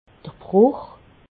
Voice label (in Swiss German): "bruch", alsace